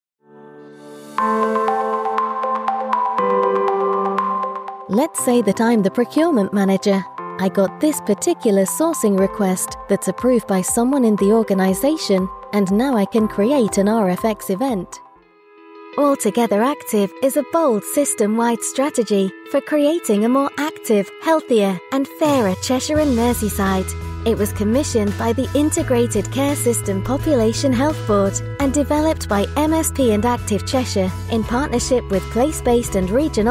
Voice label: Explainer Samples